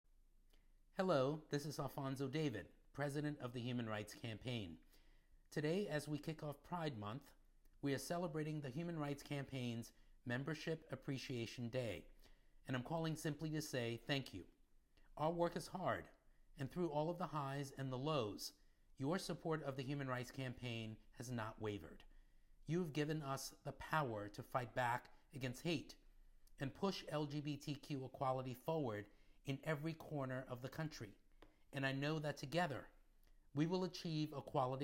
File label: Voicemail; General Members